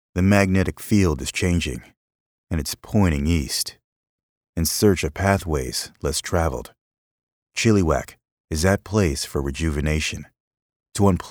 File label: Narration